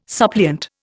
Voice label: "suppliant", Indian